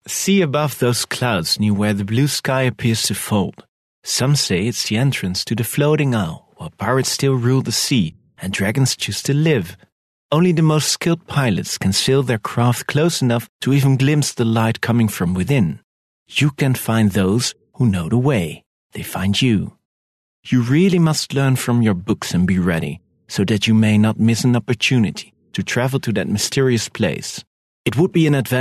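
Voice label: Dutch, male, English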